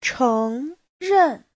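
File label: "chéngrèn", Mandarin Chinese; 承认; thừa nhận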